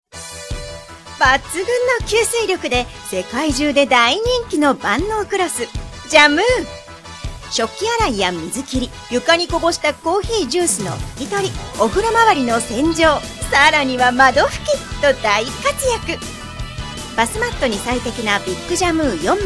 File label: tv shopping